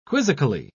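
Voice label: "quizzically", English, uk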